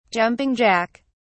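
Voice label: "jumping jack", British English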